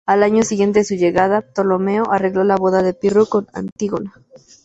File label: spanish; boda; mozilla voice